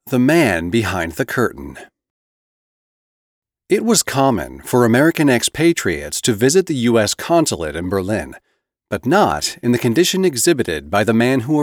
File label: Voice Actor Audiobook Nonfiction